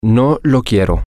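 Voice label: Spanish, male; i Dont Want It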